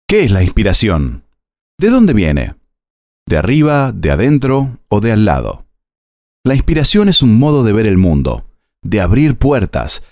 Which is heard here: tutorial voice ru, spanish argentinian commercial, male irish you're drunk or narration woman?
spanish argentinian commercial